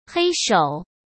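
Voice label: "黑手", Mandarin Chinese; chinese-flashcards; hsk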